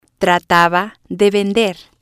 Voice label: "trataba de vender", spanish

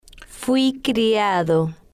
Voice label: "fui Criado", spanish

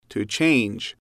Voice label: "to change", English

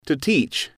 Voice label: "to teach", English

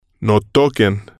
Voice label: "no toquen", spanish

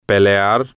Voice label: "pelear", Spanish

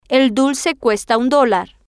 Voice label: el Dulce Cuesta Un Dolar, Spanish

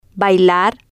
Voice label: "bailar", Spanish

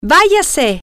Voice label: go away, spanish, F

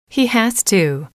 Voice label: he has to, English

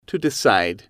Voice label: "to decide", English

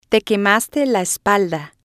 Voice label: "te quemaste la espalda", spanish

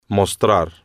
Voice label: "mostrar", spanish

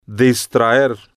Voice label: "distraer", Spanish

